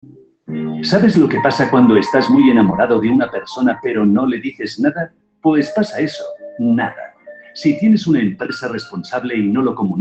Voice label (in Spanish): Cuña; verdes digitales